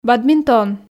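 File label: "бадминтон", Komi, female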